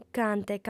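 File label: "cante", female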